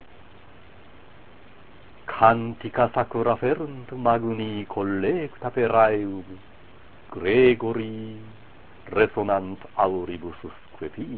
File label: Recitatio, latin